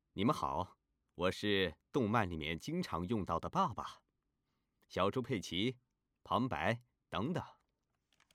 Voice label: 男 角色 爸爸和旁白男

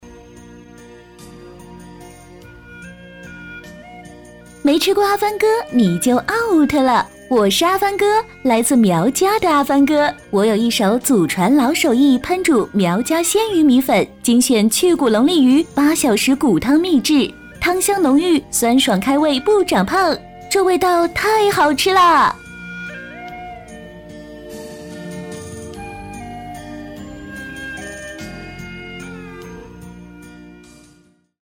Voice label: 女 短视频

